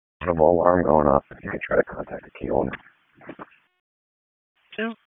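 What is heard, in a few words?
Police Southwest District Dispatch, p25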